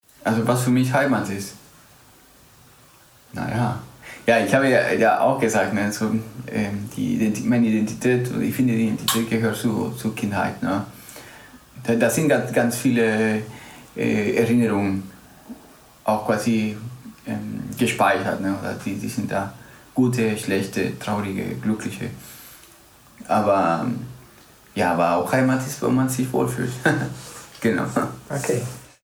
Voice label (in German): spanisch guatemala